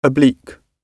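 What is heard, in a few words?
"oblique" British English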